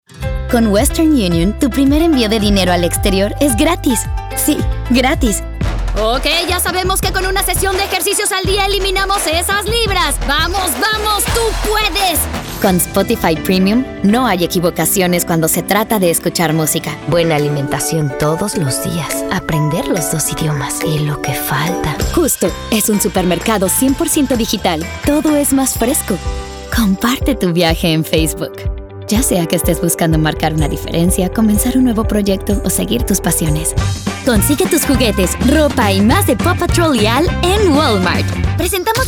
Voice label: commercial